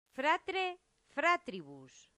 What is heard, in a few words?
"fratre fratribus", latin; santillana